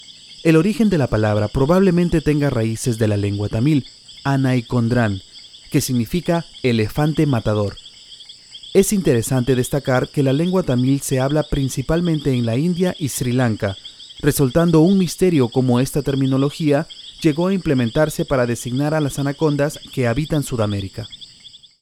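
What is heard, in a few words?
Spanish narration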